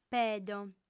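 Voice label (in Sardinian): "pedo", Sardinian, female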